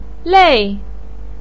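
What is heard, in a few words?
"lei", Mandarin pinyin, fourth tone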